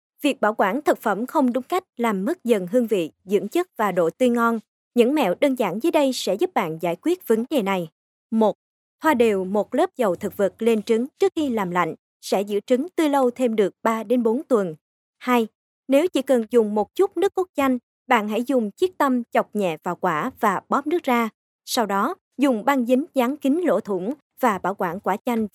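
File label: Vietnamese, female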